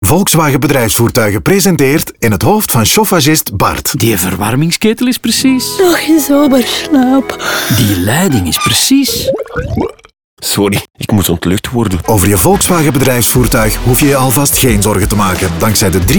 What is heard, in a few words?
Radiospot; Minder kopzorgen voor vakmannen dankzij de saloncampagne van Volkswagen Bedrijfsvoertuigen